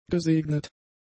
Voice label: "gesegnet", German; adjectives